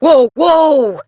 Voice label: Horse Stop Adult; Duviri

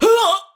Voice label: Pain Ranged, Battle Barks; Tau; Tau Dax

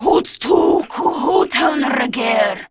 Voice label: Patrol, Grineer Female; Warframe; Battle Barks

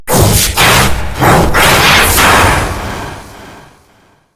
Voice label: Golem Boss Speak Combat Taunt; Warframe

